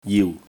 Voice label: "jiu1", Cantonese